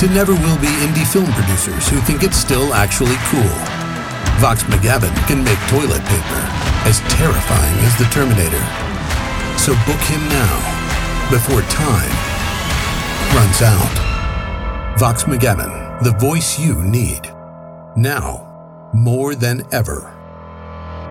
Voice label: Movie Trailer Guy